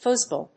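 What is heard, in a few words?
"fuzz ball", English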